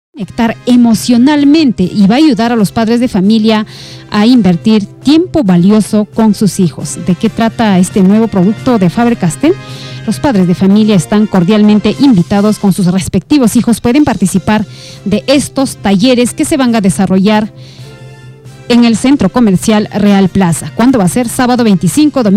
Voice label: radio noticias; radio scala de oro

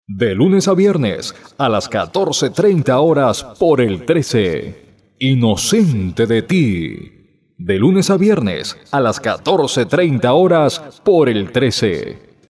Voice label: voz off

